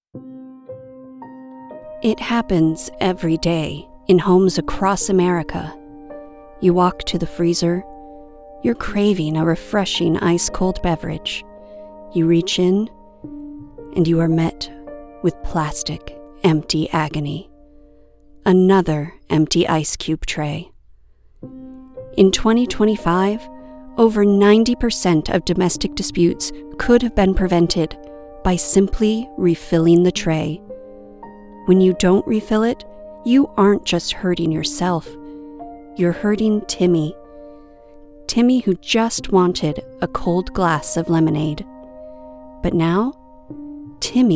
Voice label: PSA parody ad; Refill The Tray

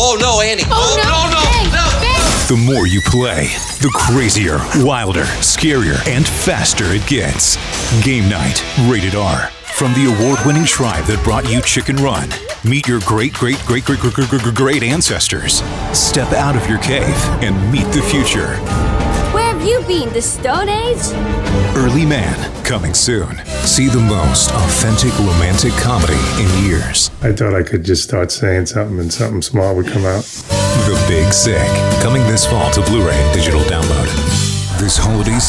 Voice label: Modern Trailer Voice, Trailer Light

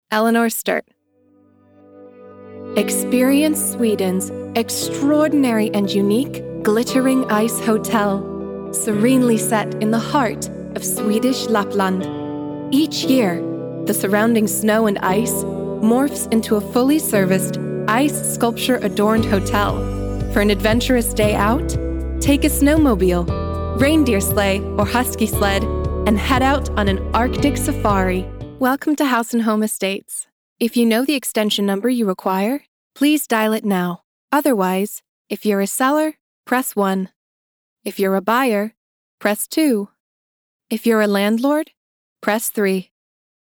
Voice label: corporate

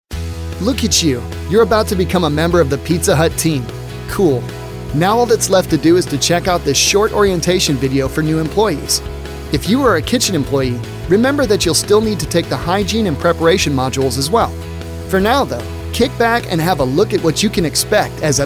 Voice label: elearning

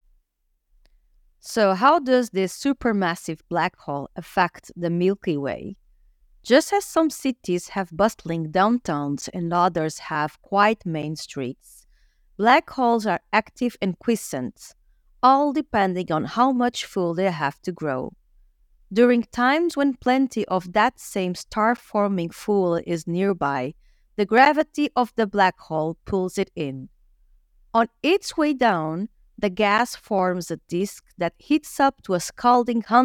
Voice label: Planetarium Show Narration

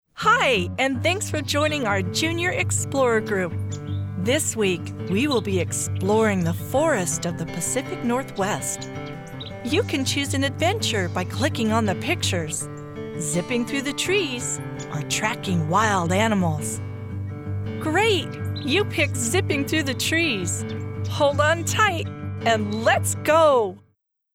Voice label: Children's E-learning

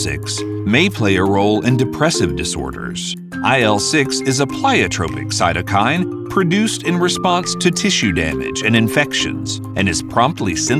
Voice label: DEMO MEDICAL NARRATION

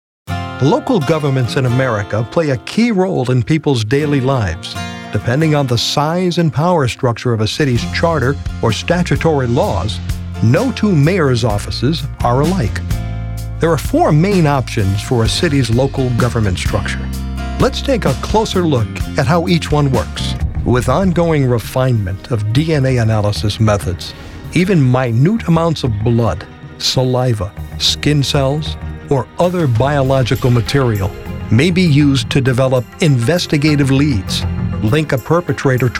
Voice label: Narration

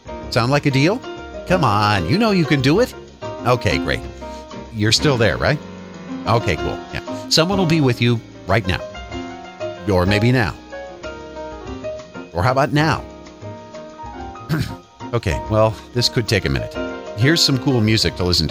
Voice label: On-Hold

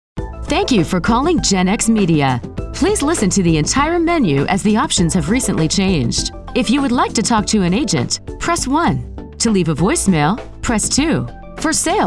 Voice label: IVR MESSAGING ON HOLD

